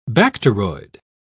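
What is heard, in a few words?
"bacteroid", British English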